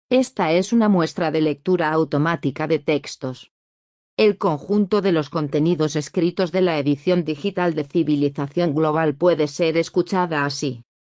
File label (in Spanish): muestra lectura automática